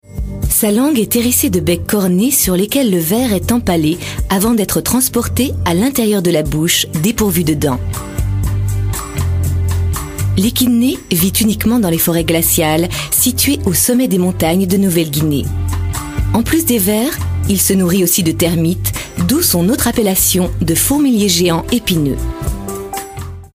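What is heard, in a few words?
French commercial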